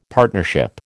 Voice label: "partnership", American